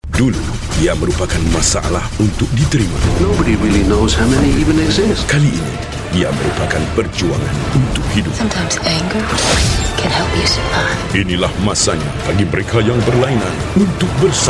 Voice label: Malay, Malay Male

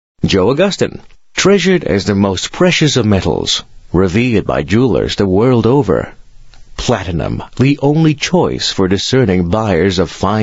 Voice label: Asian English, English Singaporean Male

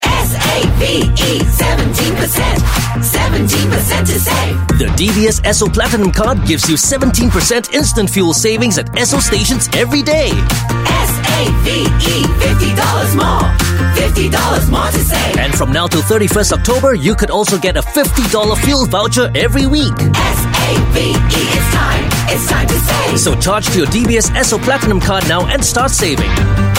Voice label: Asian English, English Singaporean Male; DBS Esso

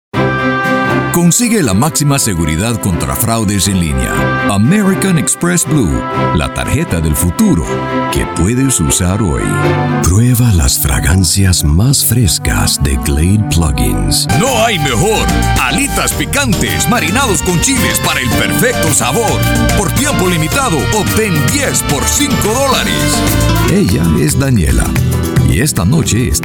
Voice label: Latin Spanish, Spanish Latin Male

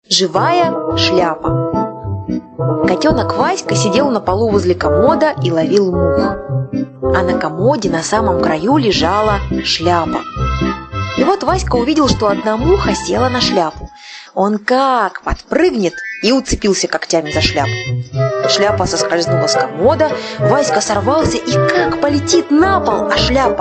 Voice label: Russian Story, Russian Female

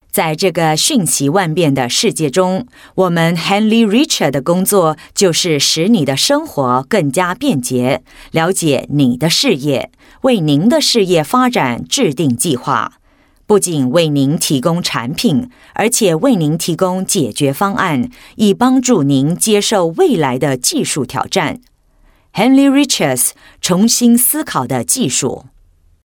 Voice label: Corporate China, Mandarin, Female

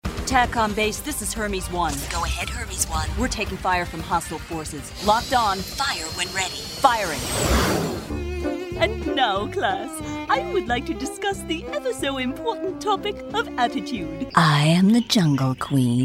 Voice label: US English Animation Video Games Anime, Female

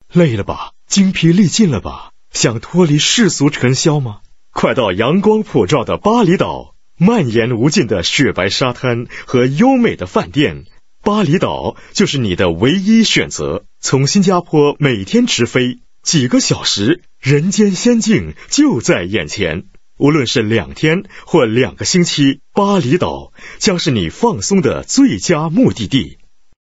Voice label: Mandarin, Mandarin China Male

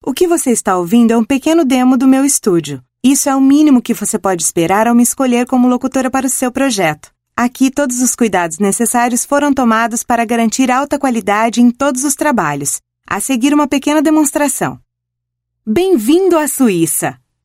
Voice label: Brazilian Portuguese Female

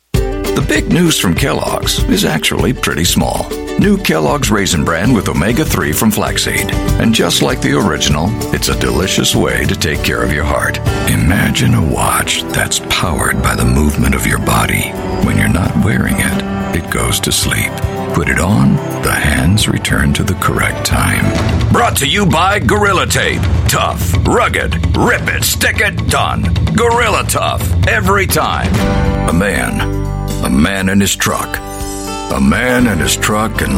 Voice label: US English Commercial, Male

